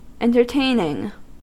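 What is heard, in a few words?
"entertaining", US English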